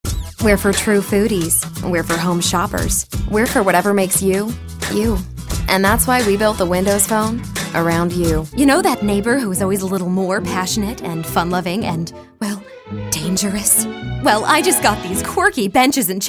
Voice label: commercial